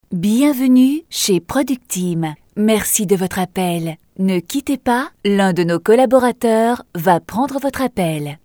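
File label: French European IVR; IVR/Phone systems; Female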